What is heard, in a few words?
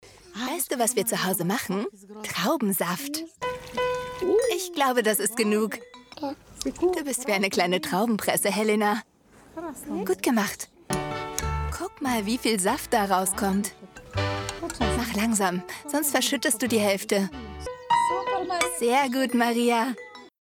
voice Over; imagefilme; kika ich bin ich